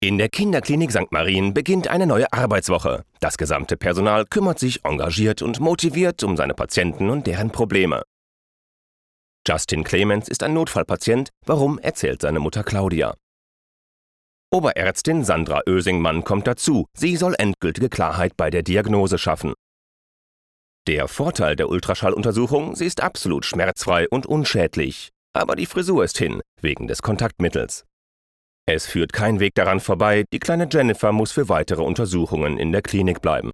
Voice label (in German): feature, deutsch maenner; sprecher